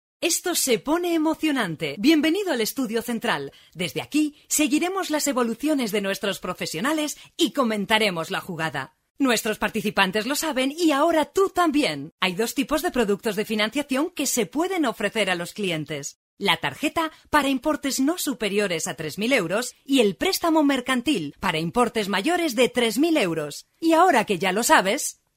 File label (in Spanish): locutora castellana